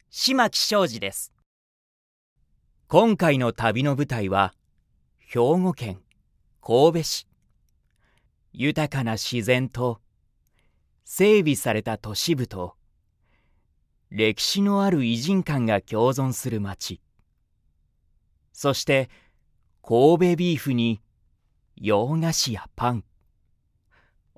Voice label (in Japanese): テンション高めの映画予告